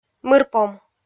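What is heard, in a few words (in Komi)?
"мырпом", Komi, female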